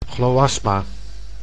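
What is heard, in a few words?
"chloasma", Dutch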